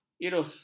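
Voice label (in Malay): "irus", Malay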